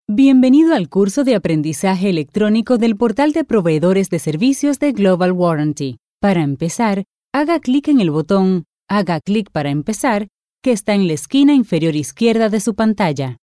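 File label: Latin American Spanish, Online Learning; GoLocalise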